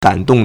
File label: "gan3 dong4", Chinese